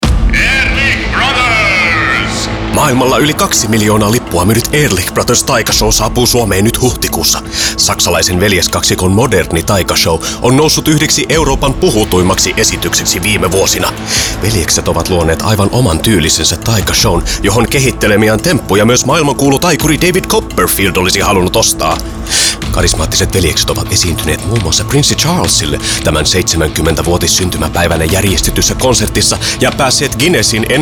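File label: Finnish, Commercial; GoLocalise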